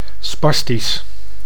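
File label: "spastisch", Dutch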